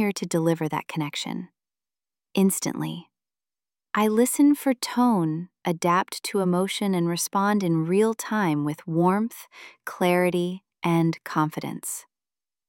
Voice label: Digital Voice Agent Intro; Humach; Voice Automation for Multilingual Customer Interactions